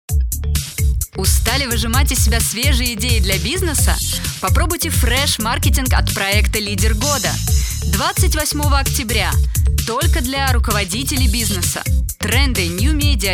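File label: radiospot russian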